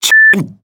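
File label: Korean Speech Quick Commands Caramba Beep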